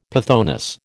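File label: "pythoness", American English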